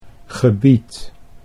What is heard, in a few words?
"gebied", Dutch